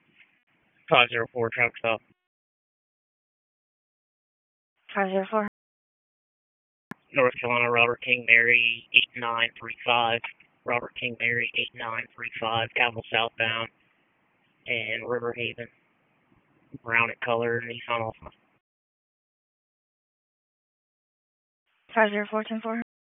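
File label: Wake Forest Police Dispatch, p25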